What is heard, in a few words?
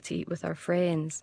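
"to eat with our friends", Scottish English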